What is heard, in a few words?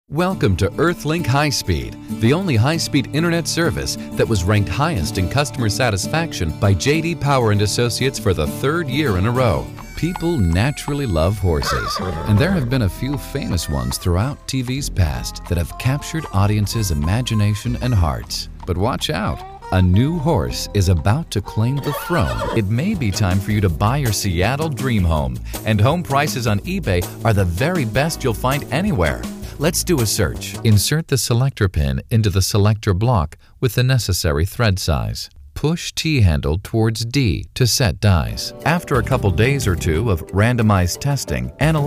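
narration, voiceovers male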